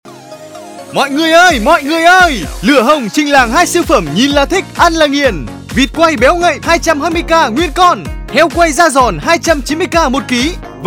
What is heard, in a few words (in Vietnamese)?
thu am quang cao; Lua Hong vit quay 220